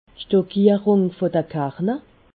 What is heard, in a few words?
"karna", alsace